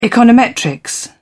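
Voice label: "econometrics", British English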